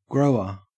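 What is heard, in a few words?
"grower", Australian English; pronunciations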